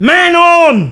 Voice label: Look Out, male; svencoop

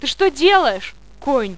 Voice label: fear; svencoop; paranoia; medic

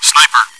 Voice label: chitchat, bot, voice commands; svencoop

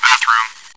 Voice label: chitchat, bot, voice commands; svencoop

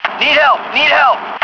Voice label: radio help; dod

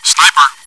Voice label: chitchat, bot, voice commands; svencoop

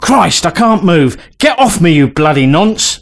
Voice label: Stop, male; svencoop

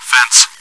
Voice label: chitchat; svencoop

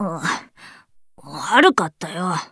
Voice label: sorry, voice commands; svencoop; Blanc

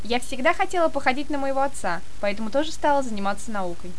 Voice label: monologue; svencoop; paranoia

